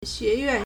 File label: "xue2yuan4", Chinese